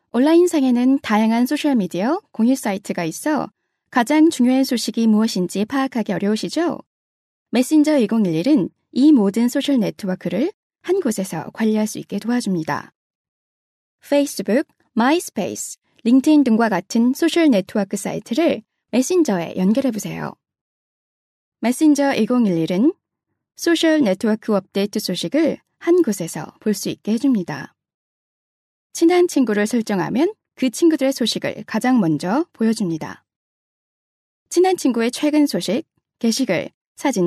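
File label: female, Korean, narration; korean accent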